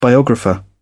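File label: "biographer", English, uk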